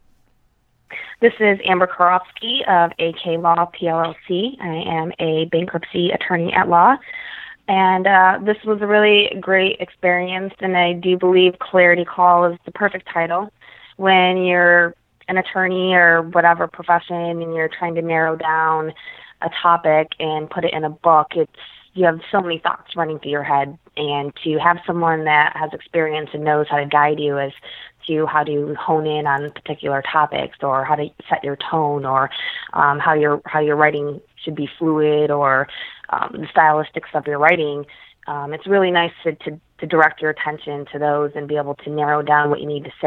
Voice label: Testimonial; Clarity Call